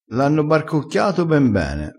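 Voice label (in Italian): "barcocchia", vocabolario dialettale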